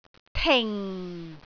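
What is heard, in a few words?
"ting4", Cantonese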